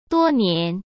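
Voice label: "多年", Mandarin Chinese; chinese flashcards; hsk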